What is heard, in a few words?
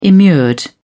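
"immured", British English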